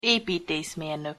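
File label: "epiteszmernok", Hungarian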